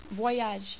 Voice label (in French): "voyage", French